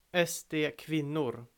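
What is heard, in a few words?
"kvinnor", Swedish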